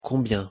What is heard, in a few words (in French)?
"combien", pronunciation fr